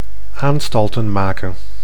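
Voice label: "aanstalten maken", Dutch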